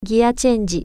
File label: gear shift, japanese